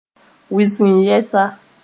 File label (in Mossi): "wɩsg yɛsa", moore